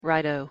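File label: "righto", English pronunciation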